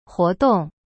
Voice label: "活动" huo dong, chinese